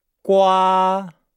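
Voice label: "gua", Chinese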